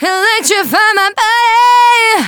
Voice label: Phrase Female; Vengeance Samples; Phrases

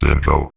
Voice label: Vocoder Words; Vengeance Samples

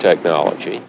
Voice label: LoFi Words, LoFi Vocals

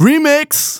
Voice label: Phrase Male Rap; Vengeance Samples

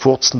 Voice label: LoFi Words, LoFi Vocals

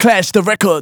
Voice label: Phrase Male Rap; Vengeance Samples

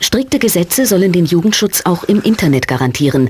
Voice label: LoFi Phrases, LoFi Vocals; Vengeance Samples

